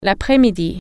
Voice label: "dawn", French